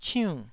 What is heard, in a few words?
"ciunn4", taiwanese